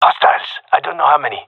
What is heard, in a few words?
gendermerie male; sees enemy; Counter Strike Model Pack; CS:GO